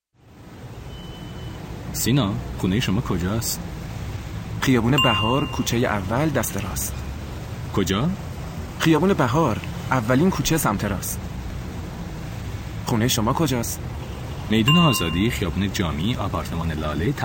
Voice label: Dialogue lesson Farsi